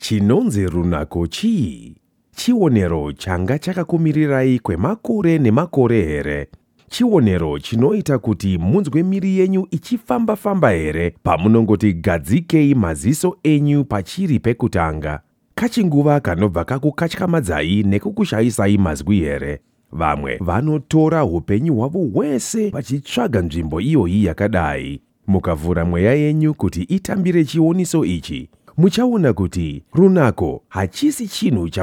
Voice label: Shona Softsell